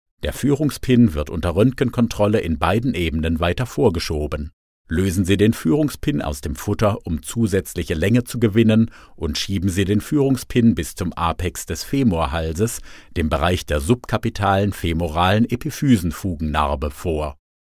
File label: E-Learning